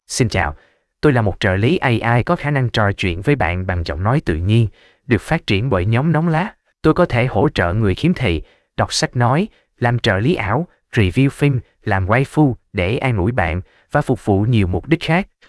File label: calm; viXTTS